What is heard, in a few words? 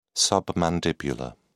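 "submandibular", British English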